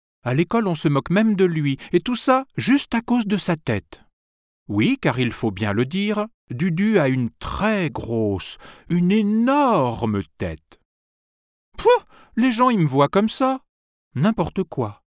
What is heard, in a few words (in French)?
voix off conte